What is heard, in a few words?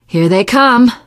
incoming, teengirl survivor voice